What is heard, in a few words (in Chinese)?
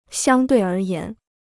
"相对而言", Chinese